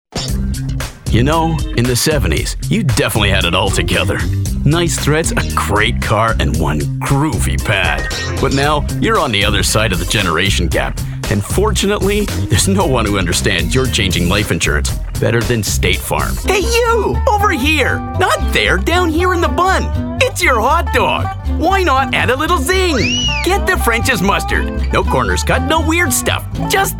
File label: Commercial